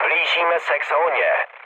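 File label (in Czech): Czech near waypoint, mood medium; War Thunder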